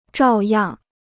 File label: "照样", Chinese